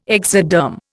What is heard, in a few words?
"exoderm", Indian